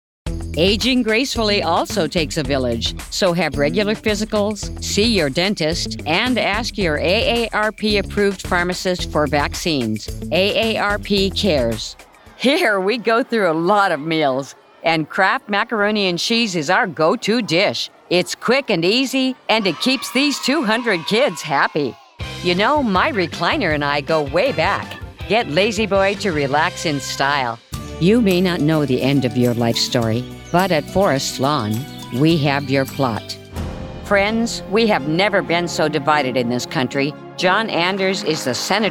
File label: COMMERCIALS